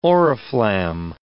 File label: "oriflamme", English